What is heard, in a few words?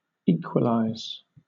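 "equalize", British English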